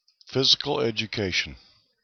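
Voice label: "physical education" English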